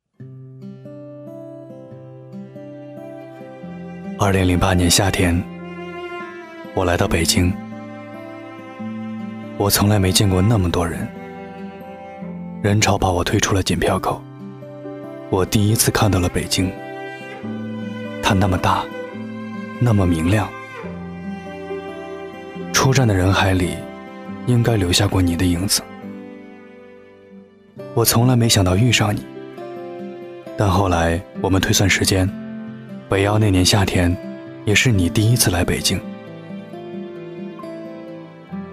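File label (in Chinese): 男 旁白（讲述）; 高端广告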